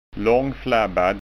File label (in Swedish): "langflabbad", skanska ord